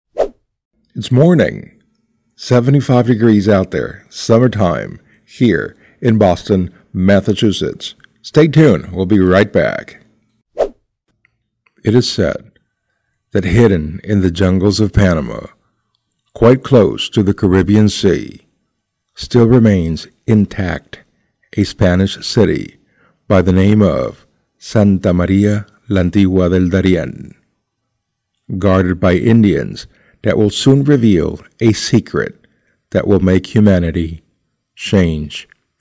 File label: naive english